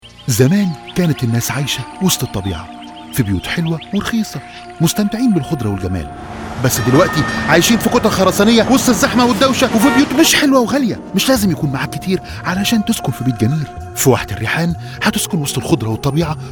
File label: male arabic, ivr voice over; ivr voice over supplying